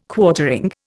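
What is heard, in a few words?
"Quartering", Indian English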